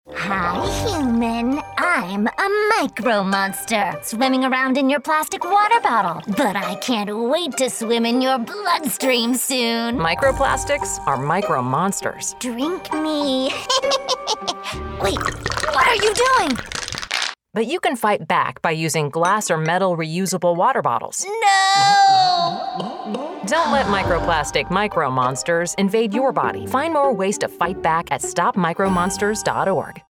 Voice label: Radio; Glass or Metal Water Bottle